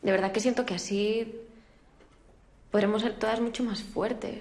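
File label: VoiceActing Spanish, female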